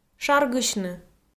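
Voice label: "шаргысьны", Komi, female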